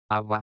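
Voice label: "haba", Spanish; Fonetica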